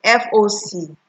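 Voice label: "FOC", Nigerian Pidgin, female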